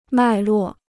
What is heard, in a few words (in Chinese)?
"脉络", Chinese